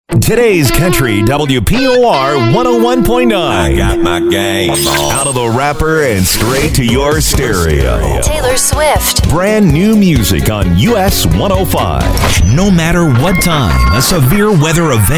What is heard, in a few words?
Imaging, male voice talent